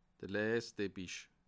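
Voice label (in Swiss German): "leseteppich", Alsatian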